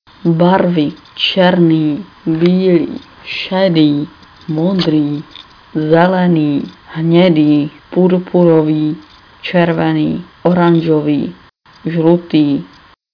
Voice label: czech; colors

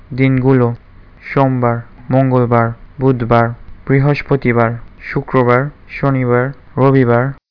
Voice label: bengali

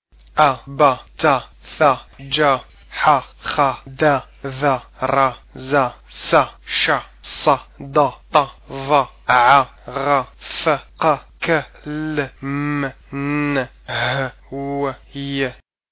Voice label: arabic alphabet

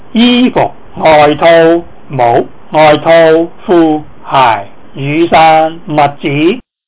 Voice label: cantonese clothes

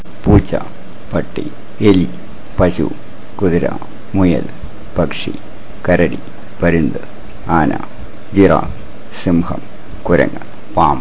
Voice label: malayalam animals

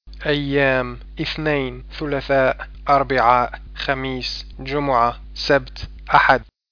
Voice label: arabic

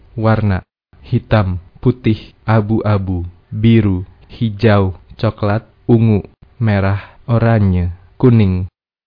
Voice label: indonesian colors

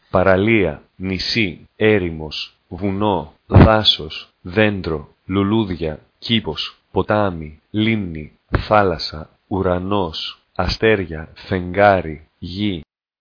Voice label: greek

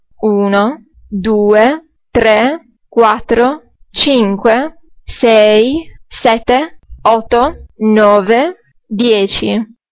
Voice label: Italian numbers

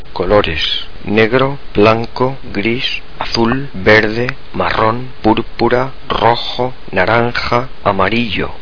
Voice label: spanish colors

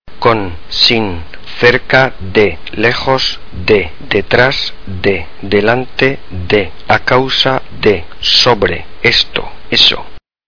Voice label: Spanish words

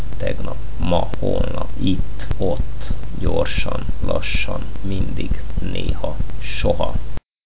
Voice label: hungarian time

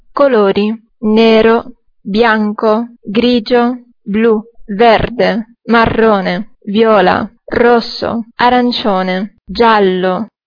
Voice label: italian colors

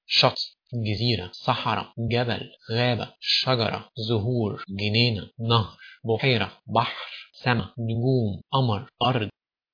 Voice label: egyptian

